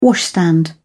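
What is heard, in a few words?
"washstand", British English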